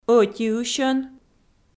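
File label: "ӧтиысьӧн" Komi, female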